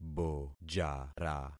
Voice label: "bojara", concat syllables; Affixing experiment